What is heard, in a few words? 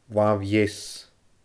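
"laamh-dheas", Scottish Gaelic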